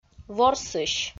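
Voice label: "ворсысь", Komi, female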